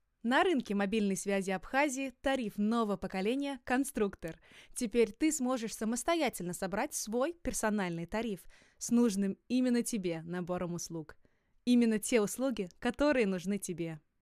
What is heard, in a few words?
Commercial Russian Language; Russian, Male, Studio, 30s-40s. Based in Thailand.